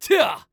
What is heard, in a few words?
Male